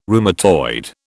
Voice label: "rheumatoid", British